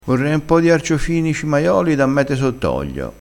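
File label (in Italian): "cimaiolo", dialettale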